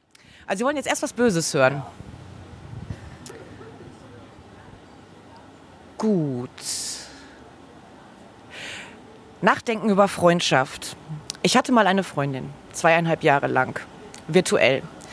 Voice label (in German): stimme w